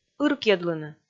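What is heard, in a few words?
"ыркйӧдлыны", Komi, female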